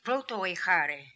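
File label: "Protoehary", pronunciations; Fourth Way; Beelzebub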